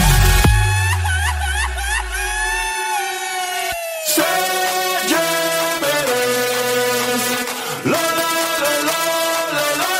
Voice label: loud; sergio perez